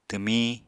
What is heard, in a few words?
"twmiñ", Apatani